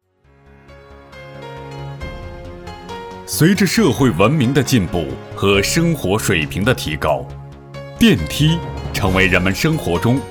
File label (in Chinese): 男 专题